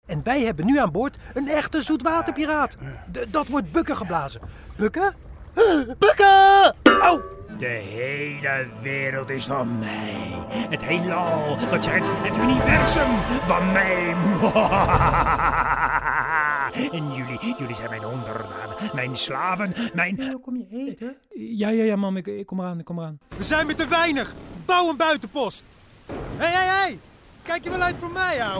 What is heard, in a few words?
NL Voice acting